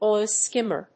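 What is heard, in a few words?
"oil skimmer", English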